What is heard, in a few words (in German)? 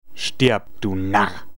Narr